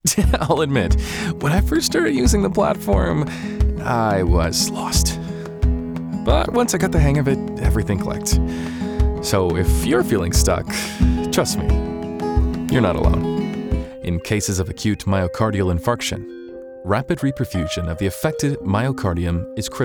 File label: eLearning, Professional Male Voice Over Talent